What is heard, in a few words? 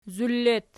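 "zulu" locative, Archi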